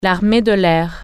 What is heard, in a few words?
"airforce", French